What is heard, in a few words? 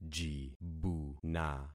"jibuna", concat syllables; Affixing experiment